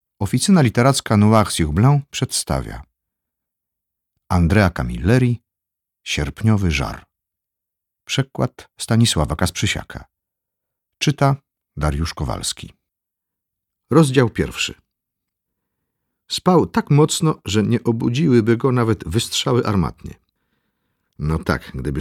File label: audiobook; sierpniowy zar